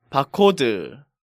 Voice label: "Bakod", Korean

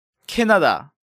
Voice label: "Canada", Korean

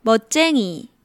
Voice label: "Meotjaengi", Korean

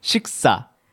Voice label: "Siksa", Korean

